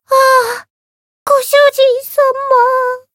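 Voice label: Voice Japanese; myungwha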